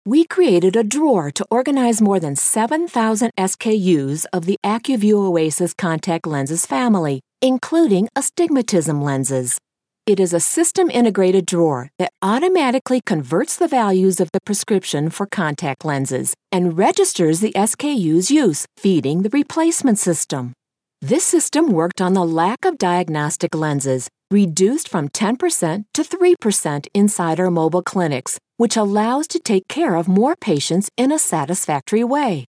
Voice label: english american corporate videos